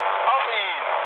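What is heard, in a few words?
German attack position mood low, speech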